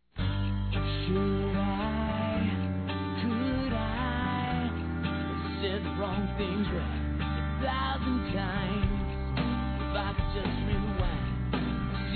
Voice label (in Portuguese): Conquista Romantica Forte Masculino; Telemensagens; Conquistas Cantadas paqueras; Telemensagens 2017